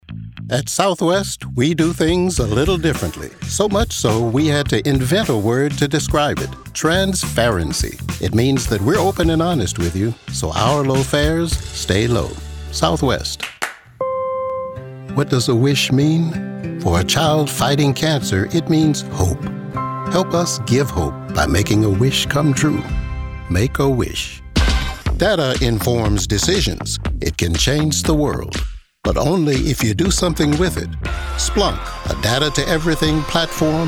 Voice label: Commercial, Voiceover